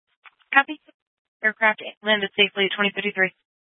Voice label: Tactical Incidents, scanner